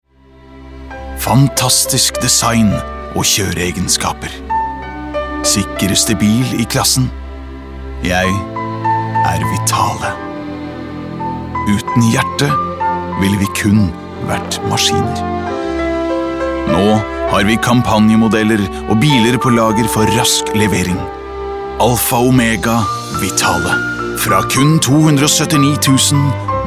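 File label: Norwegian commercial softsell; norsk; mann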